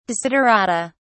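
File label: "desiderata", British English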